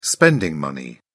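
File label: "spending money", English, British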